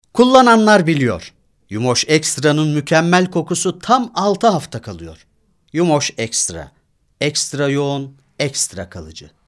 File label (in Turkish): reklam